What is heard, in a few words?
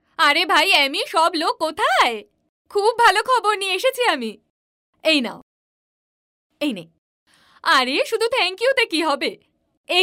Character Voice Sample